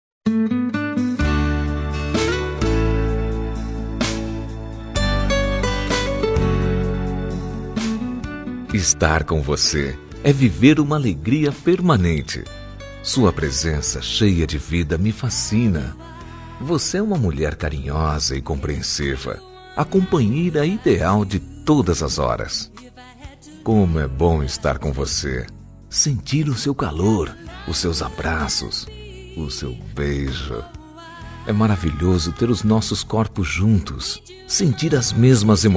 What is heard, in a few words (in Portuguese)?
ROM DECLARACAO MASC; Telemensagens; Declaração de amor; Telemensagens 2017